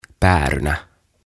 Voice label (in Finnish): "Päärynä" "Pear"